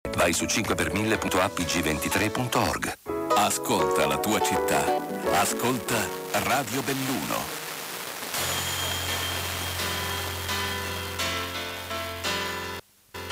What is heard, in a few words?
Radio; I Radio Belluno